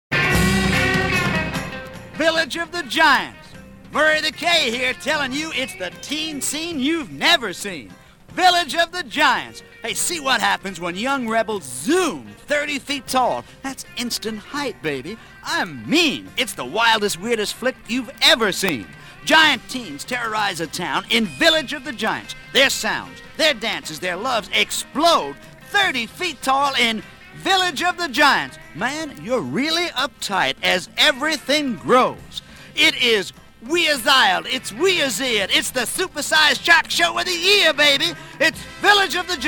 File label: radio ad